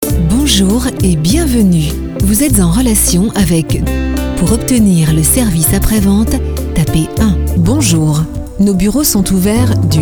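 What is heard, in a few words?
French European IVR; IVR/Phone systems; Female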